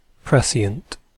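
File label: "prescient", British English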